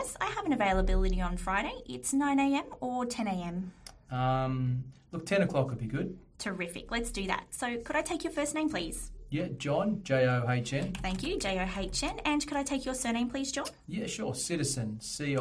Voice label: virtual receptionist appointment booking call sample; MyDiary